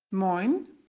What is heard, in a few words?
"Moin", Low German; Low German (Low Saxon)